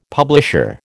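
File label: "publisher", American English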